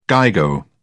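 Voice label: "gigo", British English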